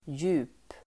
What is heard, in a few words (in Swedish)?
"djup", Swedish